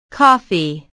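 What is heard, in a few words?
"coffee", English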